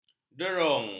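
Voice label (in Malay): "derung", Malay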